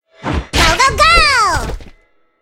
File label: hurryup start, en